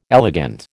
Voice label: "elegant", American

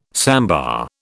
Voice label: "sambar", British

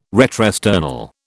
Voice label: "retrosternal", British

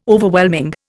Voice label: "Overwhelming", Indian

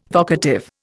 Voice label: "vocative", Indian

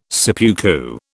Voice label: "seppuku", British

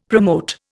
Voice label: "promote", Indian; Dictionary

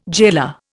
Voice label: "jailer", Indian

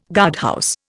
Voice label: "guardhouse", Indian

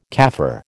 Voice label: "Kaffir", American

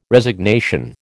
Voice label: "Resignation", American

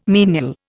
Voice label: "menial", Indian

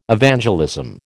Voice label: "Evangelism", American

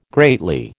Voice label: "greatly", American

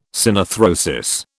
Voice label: "synarthrosis", British

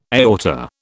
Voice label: "aorta", British

